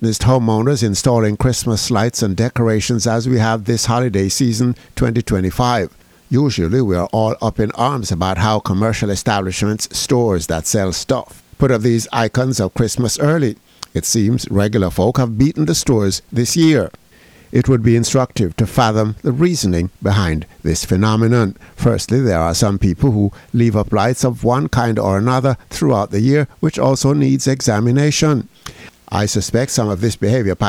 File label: COMMENTARY